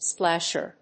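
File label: "splasher", English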